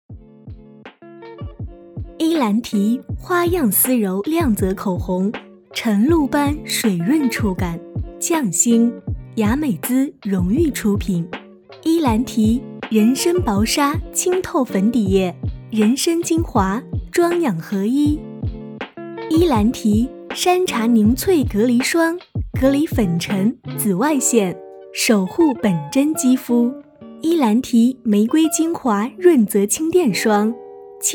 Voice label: 广告配音; 伊兰提

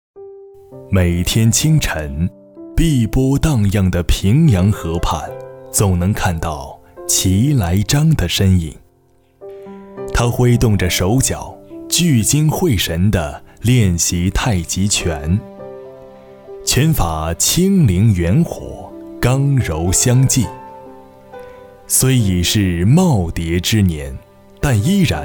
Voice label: 温暖讲述

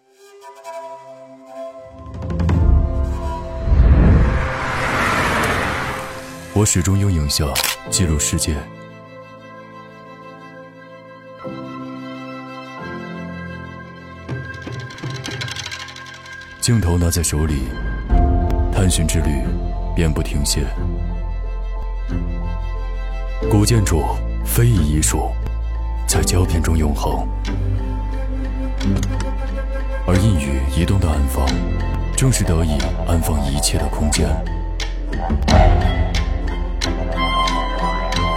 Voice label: 沉稳品质广告; 与揽境冲印古都

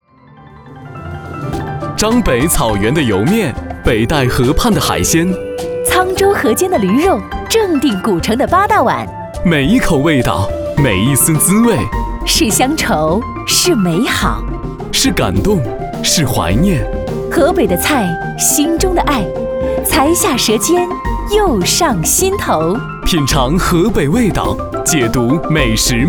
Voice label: 河北 电台 亲切

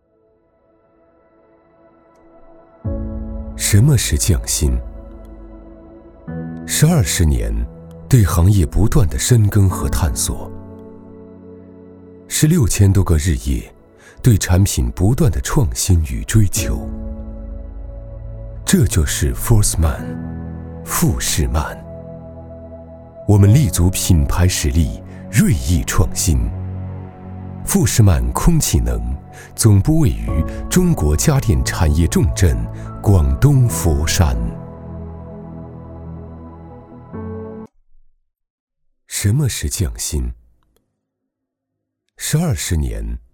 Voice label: 高端 质感; 匠心品牌

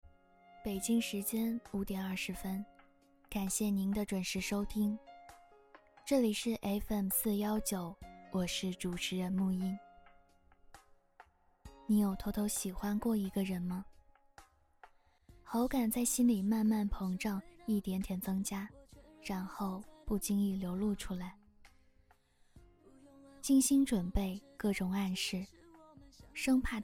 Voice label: 电台; 爱情到底是什么

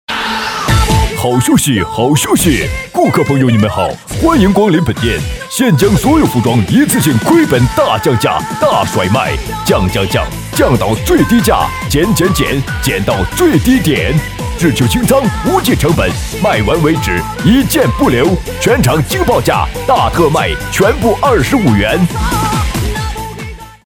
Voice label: 促销服装; 金达莱花

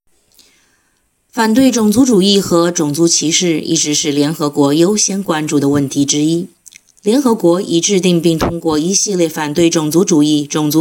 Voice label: 有声书选段

